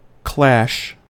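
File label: "clash", American English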